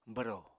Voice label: "bwro", Apatani

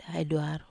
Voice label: "ai duaro", Wamesa

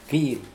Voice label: "kihl", Mokilese

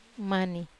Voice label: "mani i", Wamesa

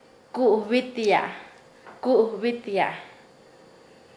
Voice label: "hacer fiesta", Nasa Yuwe

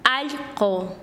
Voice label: Chanka, quechua; perro

